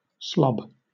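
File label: "slob", British English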